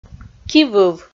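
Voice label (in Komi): "кивыв", Komi, female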